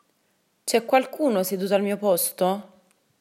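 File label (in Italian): Seduto al mio posto, Italian; italybytrain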